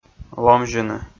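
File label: "ломзьыны", Komi, male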